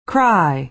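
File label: "cry", American English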